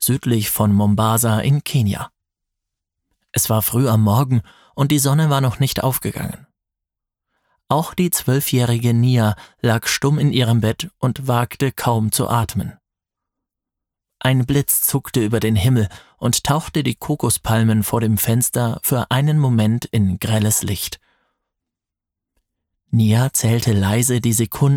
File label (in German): Hoerbuch Kinder Krimi